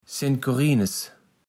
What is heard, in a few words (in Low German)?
"St. Kurinnes", platt